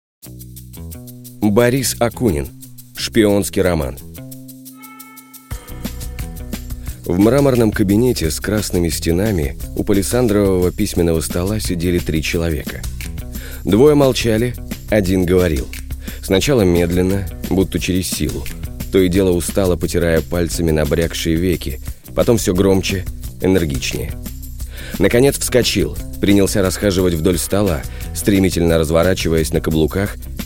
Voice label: audiobook